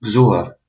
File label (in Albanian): cheers, albanian gheg